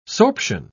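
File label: "sorption", British English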